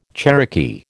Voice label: "Cherokee", American

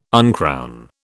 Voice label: "uncrown", British

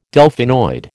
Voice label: "Delphinoid", American

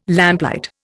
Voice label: "lamplight", Indian

